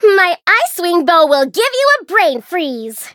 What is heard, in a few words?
menu tap eng; blue slushy